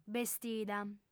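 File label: "bestida", female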